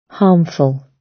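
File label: "harmful", British English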